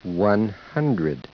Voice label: SPEECH ENGLISH